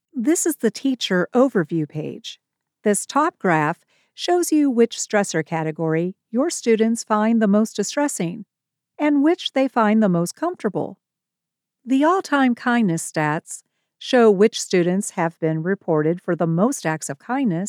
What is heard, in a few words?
Narration